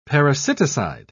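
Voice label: "parasiticide", British English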